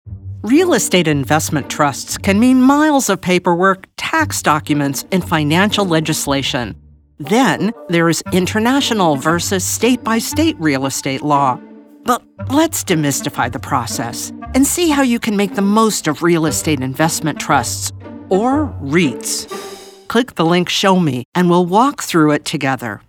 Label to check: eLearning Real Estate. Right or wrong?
Right